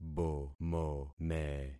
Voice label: "bomome", concat syllables; Affixing experiment